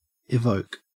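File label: "evoke", Australian English; pronunciations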